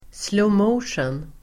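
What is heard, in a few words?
"slow motion", Swedish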